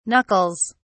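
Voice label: "knuckles", English, British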